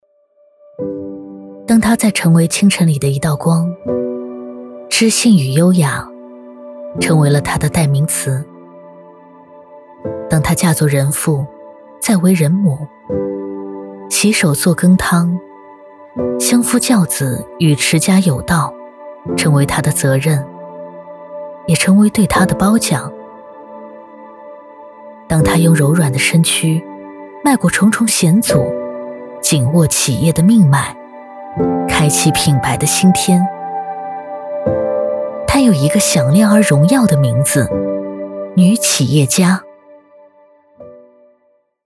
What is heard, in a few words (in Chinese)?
宣传片走心; 女企业家协会